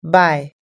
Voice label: "buy", us english